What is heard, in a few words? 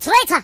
"Traitor", voices Russian